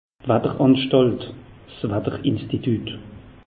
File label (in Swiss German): "watteranstalt", alsace; meteo